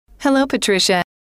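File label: hello, female; trainer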